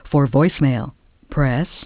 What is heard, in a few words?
voicemail; PBXNSIP